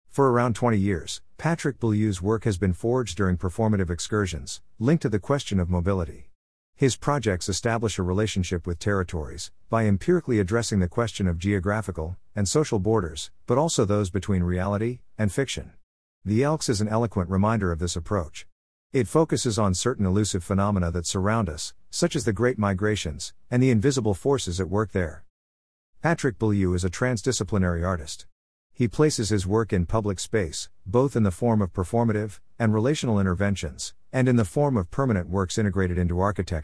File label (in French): anglais